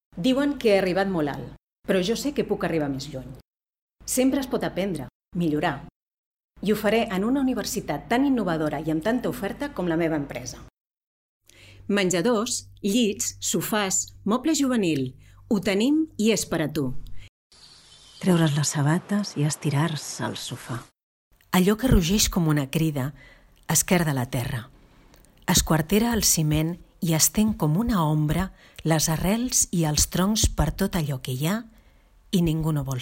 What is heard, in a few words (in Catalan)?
català